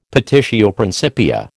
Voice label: "petitio principia", American